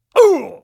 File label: pain, metropolice; hl2